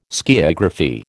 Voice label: "skiagraphy", American